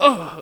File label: human old hit; wesnoth